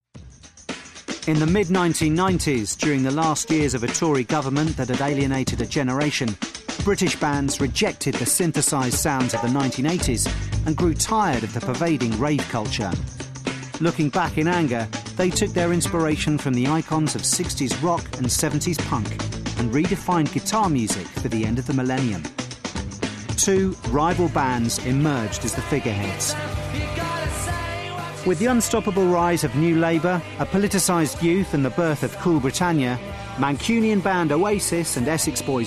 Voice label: Narration; Teens-20s; Good RP

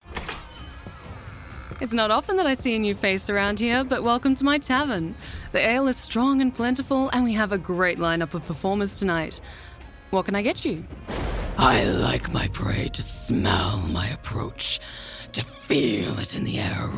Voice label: Character

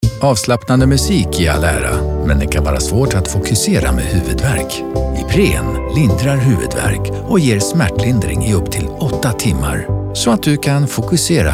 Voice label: swedish; Scandinavian; Male; 30s-40s; Based in Sweden

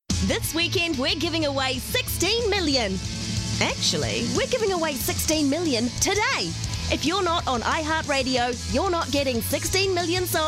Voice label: Commercials; 20s-30s. Female. New Zealand.

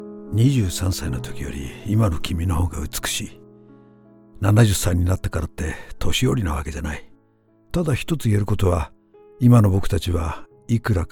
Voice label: Japanese

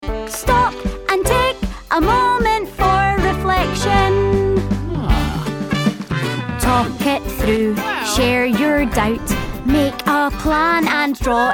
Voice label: Animation; regional accents

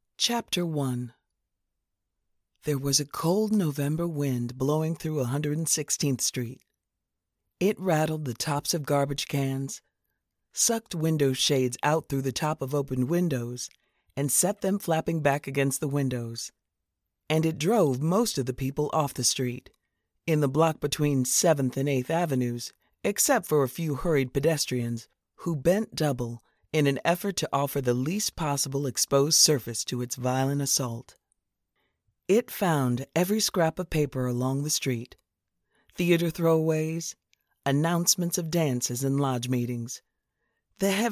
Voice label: Audiobook Read

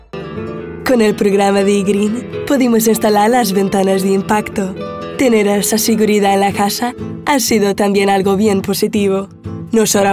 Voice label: Spanish

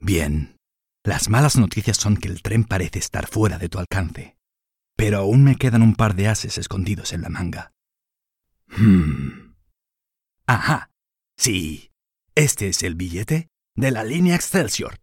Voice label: Spanish

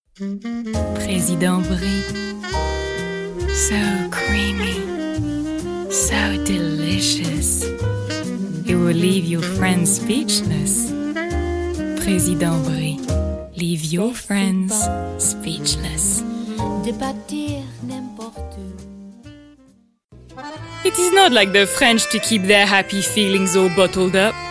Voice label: English

